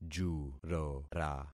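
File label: "jurora", concatenated syllables; Affixing experiment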